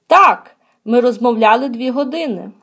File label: dialogue, Ukrainian